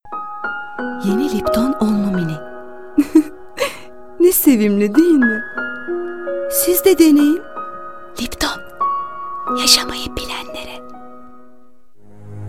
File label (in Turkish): kadin sesler, turkce seslendirme